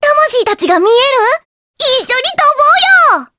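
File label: Vox Skill Japanese; Rephy; kingsraid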